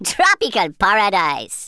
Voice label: "perfect", Rasta

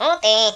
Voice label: missed, Finnish; Worms Armageddon

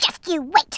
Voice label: "you'll regret that", Brooklyn; Worms Armageddon

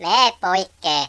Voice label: "go away", Finnish; Worms Armageddon

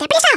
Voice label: hurry, Hispanic; Worms Armageddon

